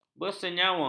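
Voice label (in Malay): "bersenyawa", Malay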